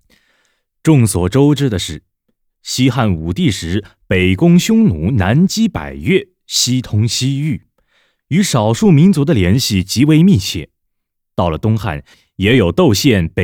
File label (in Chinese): 历史配音